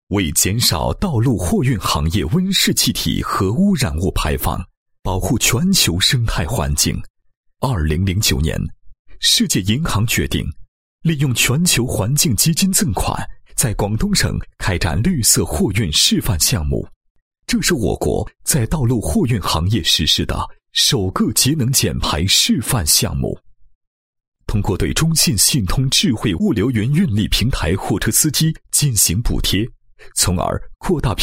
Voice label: 男 解说词 总结视频解说词; 绿色货运示范项目总结视频解说词

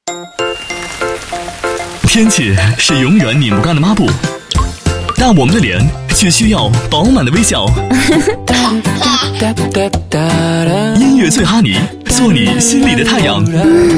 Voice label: 电台包装 轻松浪漫, 国语男声配音; 央视

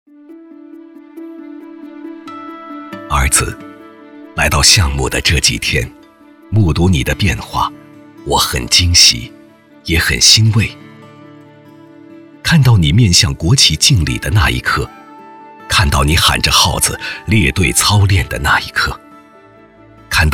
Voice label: 男, 讲述; 铁军旗帜飘扬在太行山上

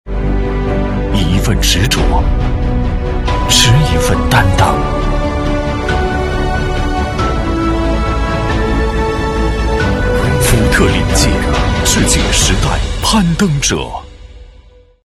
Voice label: 广告, 福特领届

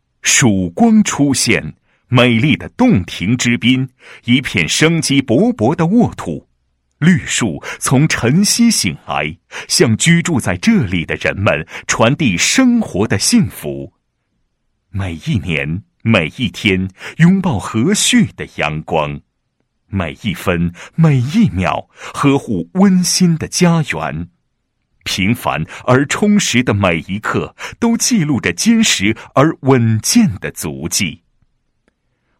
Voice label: 男 企业 物业有限公司宣传介绍; 创佳物业有限公司

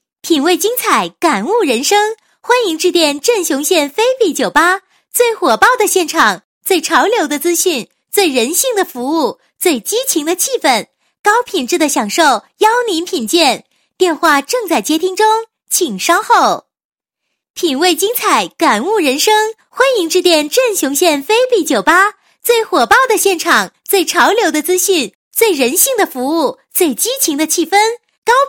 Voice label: 女 彩铃, 国语女声配音; 镇雄县菲比酒吧

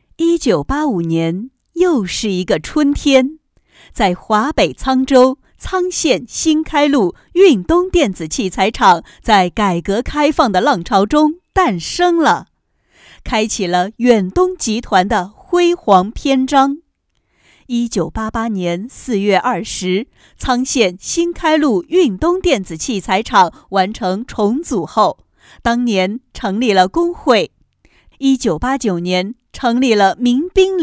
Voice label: 女 专题 大气激情, 国语女声配音; 远东器材厂

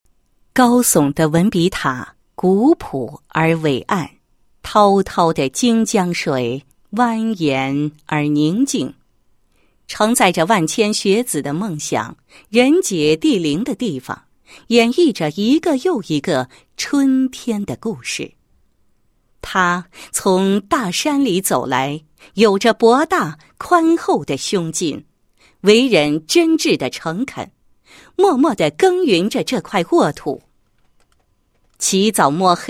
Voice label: 女 人物 校长事迹; 高州四中

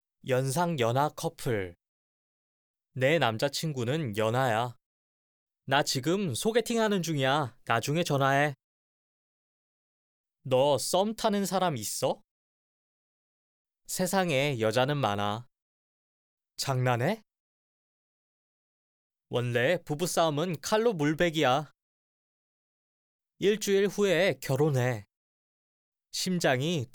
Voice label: 韩语男 叙述

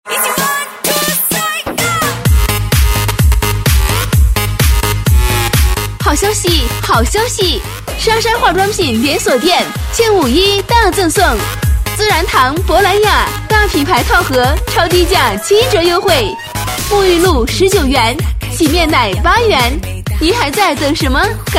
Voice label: 女 广告 化妆品, 国语女声配音 彩铃广告; 美美哒化妆品

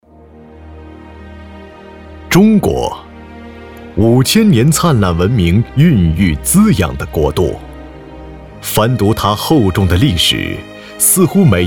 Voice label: 男 专题 深沉宽广; 央视将改革进行到底

